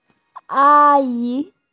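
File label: "a yi", Mandarin Chinese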